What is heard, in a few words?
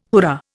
"hurrah", Indian